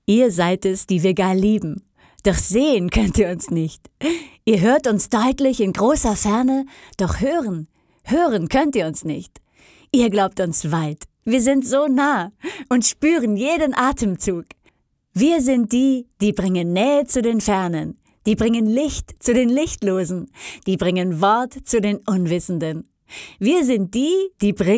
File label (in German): froehlich, frauen